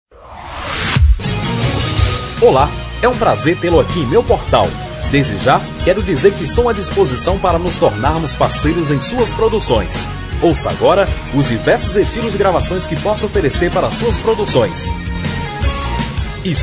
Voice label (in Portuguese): locutor portiflio